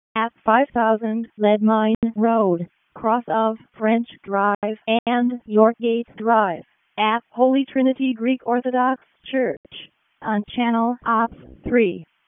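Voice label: Raleigh Fire Department ALERT, p25; RFD ALERT; wake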